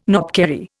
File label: "knobkerrie", Indian